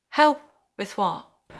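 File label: Voice Acting Spanish Female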